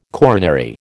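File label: "coronary", American English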